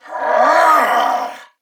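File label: Throw Grenade Male, en; Battle; Corpus Venus; Warframe; CorpusVenusMaleB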